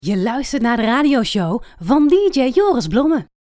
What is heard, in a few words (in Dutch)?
Radio jingles Je luistert naar